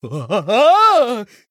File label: Male Human Play